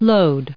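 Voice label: "load", US English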